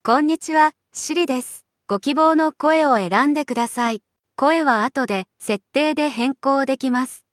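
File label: Japanese, Japan; iOS Sounds; oren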